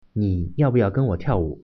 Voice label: "dance", Mandarin